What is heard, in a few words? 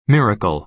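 "miracle", American English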